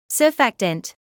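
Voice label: "surfactant", British English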